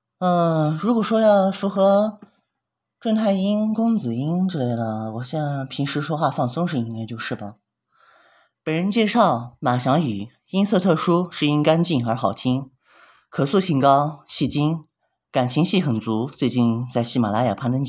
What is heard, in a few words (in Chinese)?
正太音